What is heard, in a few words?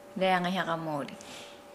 Nukuoro; Saturday